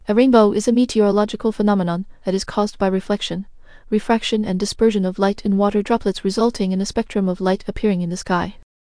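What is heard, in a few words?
speaker, American English; piper-voices; en US; libritts r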